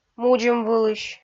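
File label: "мудзӧмвылысь" Komi, female; kpv audio dict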